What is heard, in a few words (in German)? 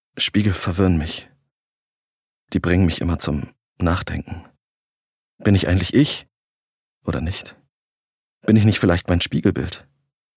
Innerer Monolog